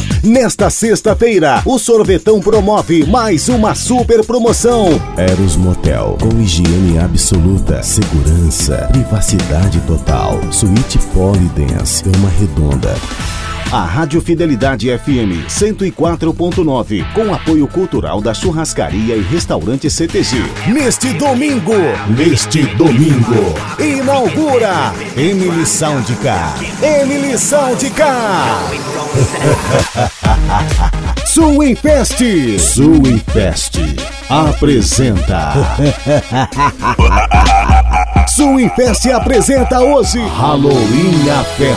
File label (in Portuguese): locutor